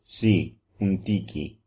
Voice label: "yes a little", Papiamento; Si, un tiki; Do you speak Papiamento?